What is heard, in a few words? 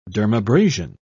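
"dermabrasion", British English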